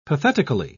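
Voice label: "pathetically", British English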